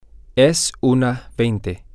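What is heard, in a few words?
Spanish, male; its 120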